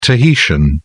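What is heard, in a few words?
"tahitian", British English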